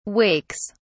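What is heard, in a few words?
"wakes", British English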